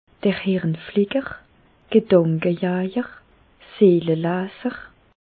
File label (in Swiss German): hirnflicker, Bas Rhin; medecine; psychiatre